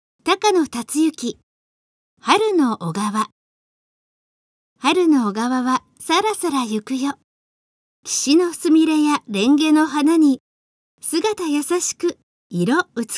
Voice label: girl; 春の小川